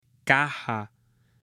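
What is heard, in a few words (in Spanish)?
"caja", Spanish, male; UTENSILIOS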